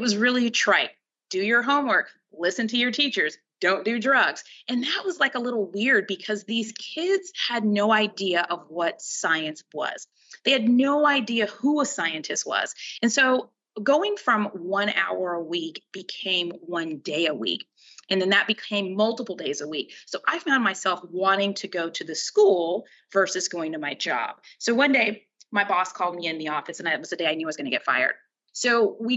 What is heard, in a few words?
Voice Over